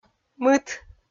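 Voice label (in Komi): "мыт", Komi, female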